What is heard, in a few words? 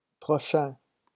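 "prochain", French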